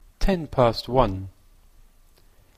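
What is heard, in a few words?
"ten past one", Canadian English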